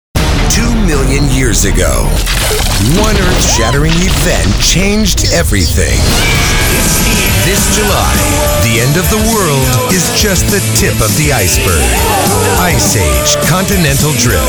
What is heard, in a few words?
Male, promos